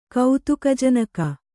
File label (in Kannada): "ಕೌತುಕಜನಕ", Kannada